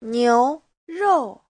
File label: "niu2rou4", chinese; Giáo trình Hán ngữ